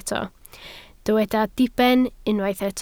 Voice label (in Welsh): "diben" Welsh